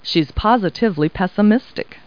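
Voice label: woman; Talking Clip Words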